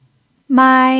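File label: "mai", first tone, Mandarin Chinese pinyin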